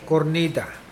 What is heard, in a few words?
"trumpet", Mokilese